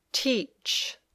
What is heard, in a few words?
"teach", English